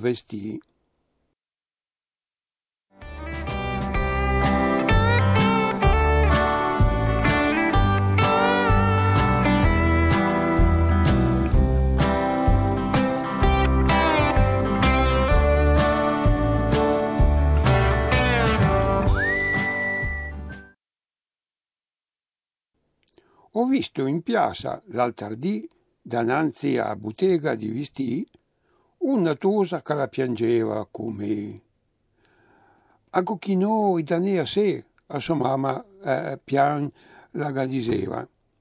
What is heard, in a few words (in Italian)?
poesia dialetto